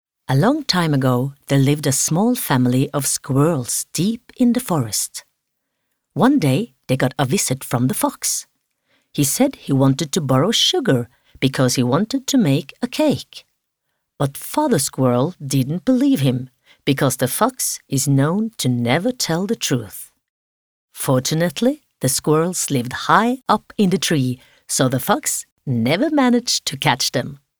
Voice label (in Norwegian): no engelsk